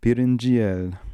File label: Pirinjiel, Beelzebub pronounciations; Fourth Way